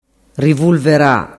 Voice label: "rivulvera", dialettu